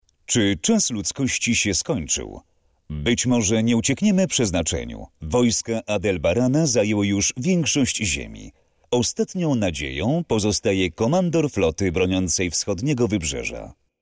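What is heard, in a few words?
voice male narration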